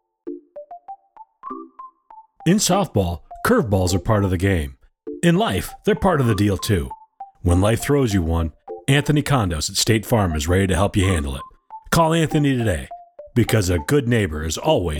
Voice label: Commercial